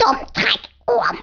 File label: You'll regret that, Danish